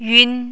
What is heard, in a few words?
"yun1", mandarin